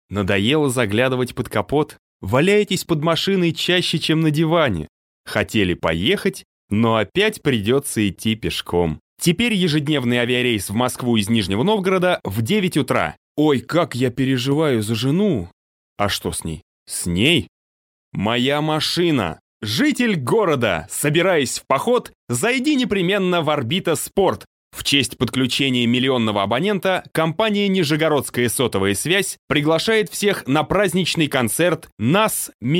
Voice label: Russian commercial